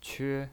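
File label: "que", Mandarin Chinese, first tone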